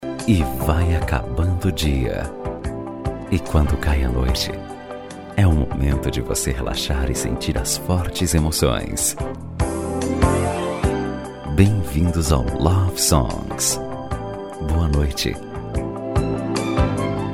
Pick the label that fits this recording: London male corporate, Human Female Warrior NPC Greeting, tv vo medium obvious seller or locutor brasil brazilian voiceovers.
locutor brasil brazilian voiceovers